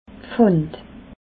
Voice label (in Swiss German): "pfand", alsace